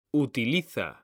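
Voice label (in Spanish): "utiliza", Spanish; mekanta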